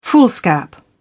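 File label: "foolscap", British English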